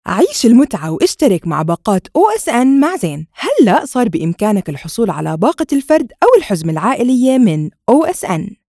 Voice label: Jordanian voiceover